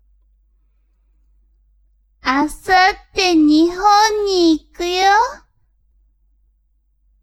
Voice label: woman